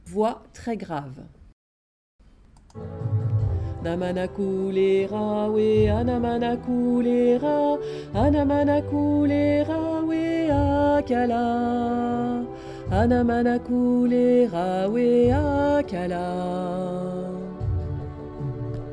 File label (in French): voix très grave